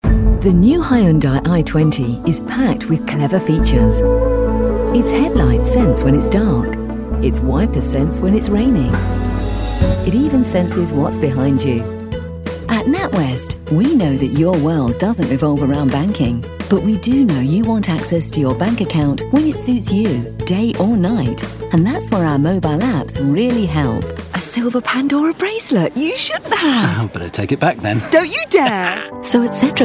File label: Commercials